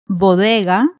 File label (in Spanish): "bodega", cuba, pinar del rio femenina; spansk; fonetica